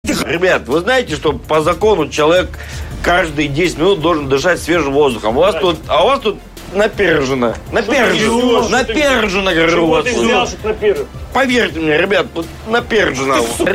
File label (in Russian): narezka iz ugarnogo dialoga